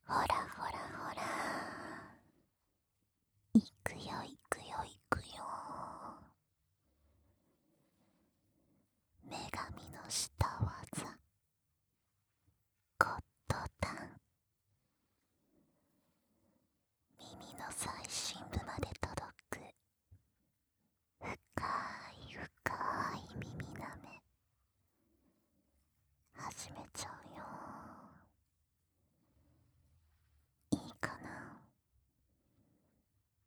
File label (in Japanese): 反転 耳舐め, ASMR; 奥までぐっぽりゴッドタン！【極・耳舐め】LV.2